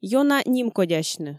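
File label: "ёна нимкодясьны", Komi, female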